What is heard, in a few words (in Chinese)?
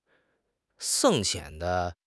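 "解剖", Mandarin Chinese; HSK6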